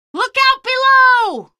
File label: dropper, female; hl2; Source engine